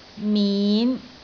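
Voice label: "min5", Cantonese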